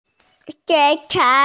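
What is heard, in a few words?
"jǐng chá", Mandarin Chinese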